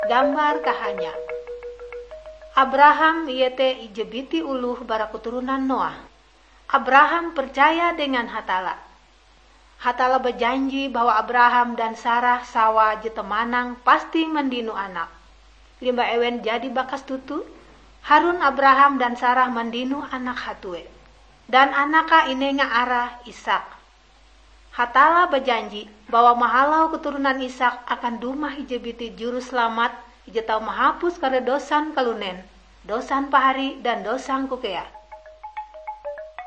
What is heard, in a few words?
kabar bahalap good news ngaju, drama